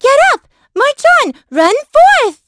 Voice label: Vox Skill, en; kingsraid

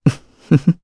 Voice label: Vox Happy, jp; Pavel; kingsraid

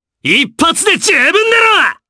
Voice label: Vox Skill jp; kingsraid

